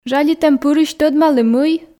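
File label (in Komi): жалитӧмпырысь тӧдмалі мый, Komi, female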